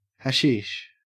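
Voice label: "hashish", Australian English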